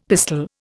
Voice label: "pistol", Indian; Dictionary